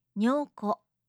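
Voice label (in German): "nyoko", japanische Mädchennamen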